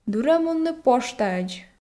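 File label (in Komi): "дыр-ӧ мунны поштаӧдз", Komi, female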